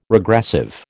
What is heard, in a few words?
"regressive", American